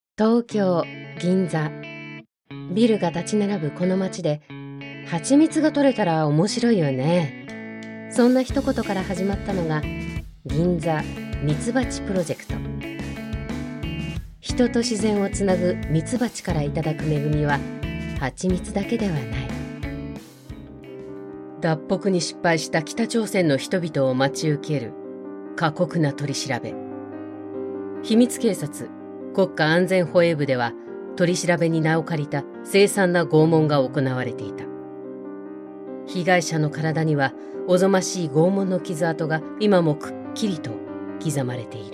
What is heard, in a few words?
japanese documentaries; Japanese voice actor